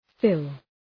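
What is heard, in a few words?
"fill", English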